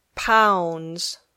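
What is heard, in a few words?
"pounds", English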